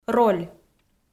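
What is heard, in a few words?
"роль", Komi, female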